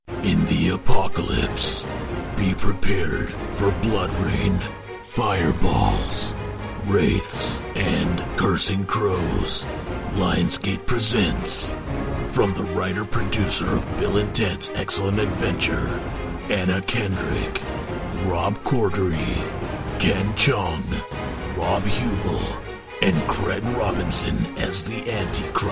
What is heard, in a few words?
Movie Trailer